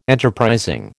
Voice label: "enterprising", American